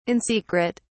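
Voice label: "in secret", British English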